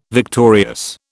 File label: "victorious", British